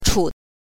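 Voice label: "chu", Mandarin Chinese pinyin, neutral tone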